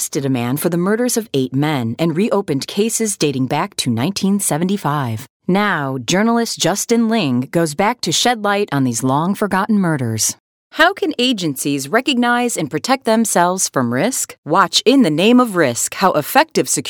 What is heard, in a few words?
english american podcasts